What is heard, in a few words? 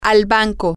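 to the bank, spanish, female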